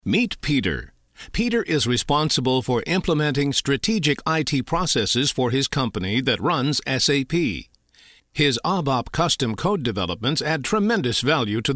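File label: Explainer Samples, American English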